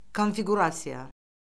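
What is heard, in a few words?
"konfiqurasiya", Azerbaijani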